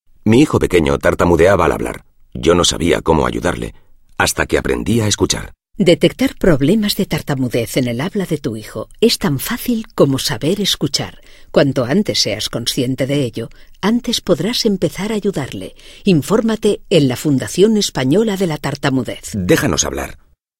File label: spot